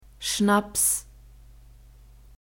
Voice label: "Schnaps", German

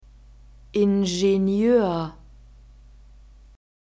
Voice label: "ingenieur", German; masculine

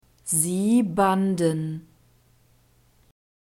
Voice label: "sie banden", German; verbs past tense irregular

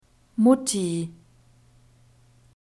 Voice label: "mutti", german

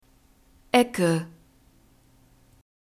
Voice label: "ecke", German; phonetic script grouped consonants

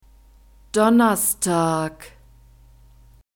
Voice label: "donnerstag", German; weekdays new words

